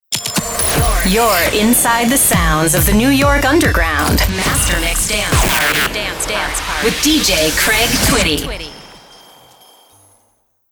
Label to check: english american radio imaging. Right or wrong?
Right